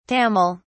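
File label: "tamil", British English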